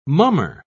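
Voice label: "mummer", British English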